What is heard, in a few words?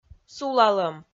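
"сулалӧм", Komi-Zyrian, female; audio dict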